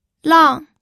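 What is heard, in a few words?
"lang", fourth tone, pinyin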